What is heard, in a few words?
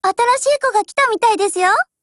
BUILD OVER JP